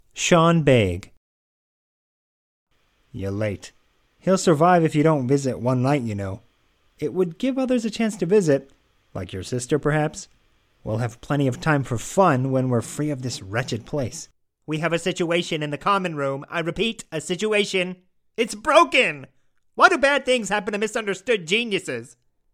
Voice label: animation; Innovative LA